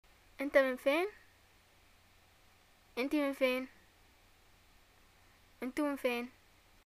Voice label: where r u from, arabic, ye

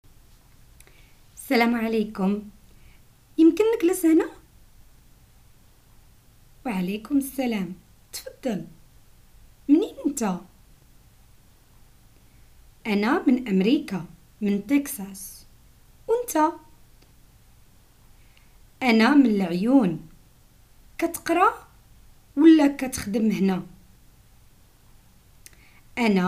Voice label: dialogues, Moroccan Arabic

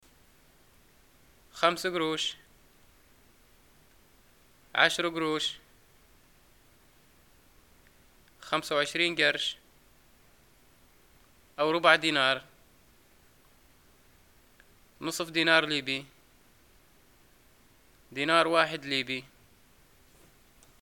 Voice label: shopping money, arabic, ly

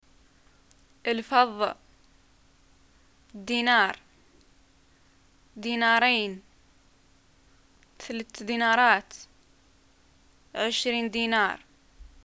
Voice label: shopping money, arabic

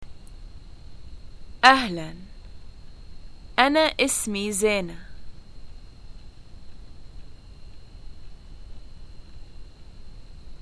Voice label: introductions hi my name is, arabic levantine

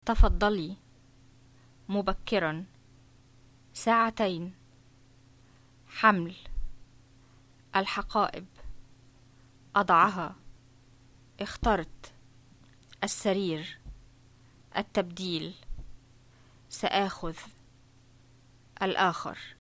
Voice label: greetings vocab, arabic msa

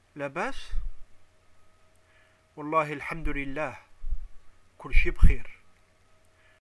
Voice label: greeting how r u, arabic

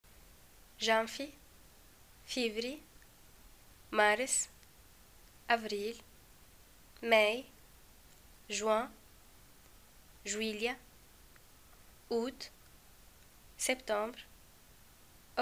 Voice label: months, arabic

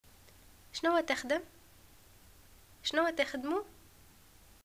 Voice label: what do u do, arabic, tn

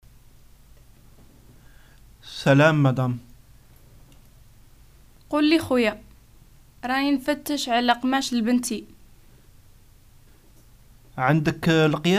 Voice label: bargaining, algerian arabic dialogues

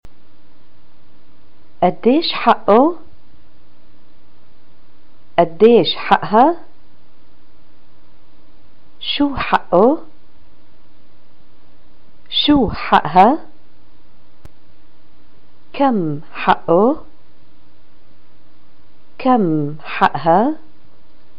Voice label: shopping how much, arabic

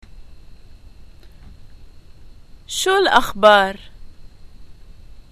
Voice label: greetings whats the news, arabic, lb

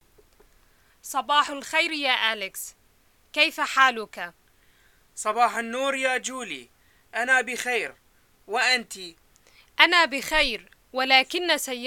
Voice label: msa telephone, arabic dialogues

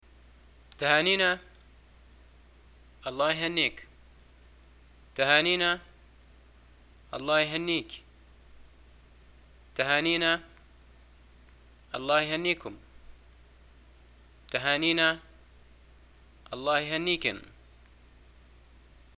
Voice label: greeting job, arabic, ly

